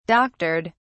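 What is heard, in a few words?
"doctored", British English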